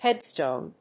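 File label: "headstone", English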